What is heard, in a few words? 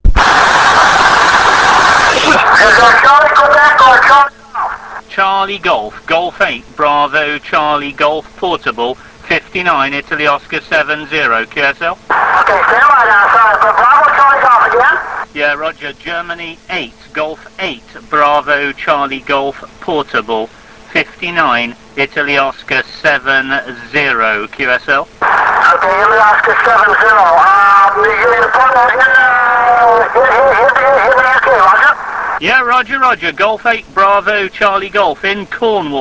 QSO